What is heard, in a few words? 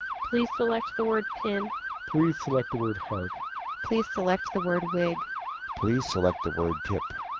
AMR WB 12650 siren; Codec